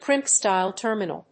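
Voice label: "crimp style terminal", English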